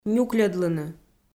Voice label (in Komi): "нюкльӧдлыны", Komi